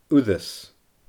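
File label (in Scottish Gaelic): "uireas", Scottish Gaelic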